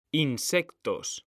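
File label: "insectos", Spanish, male; mekanta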